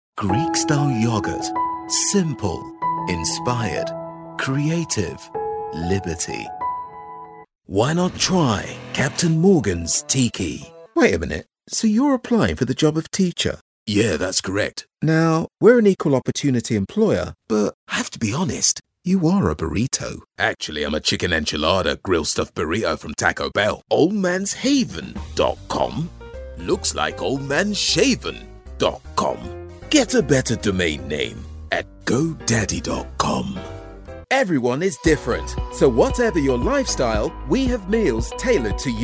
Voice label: UK British Commercial